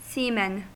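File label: "seamen" English, US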